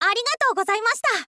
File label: "arigatou gozaimashita", girl; voice